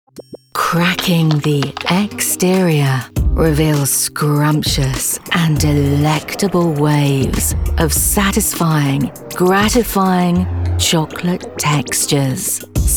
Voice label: Commercial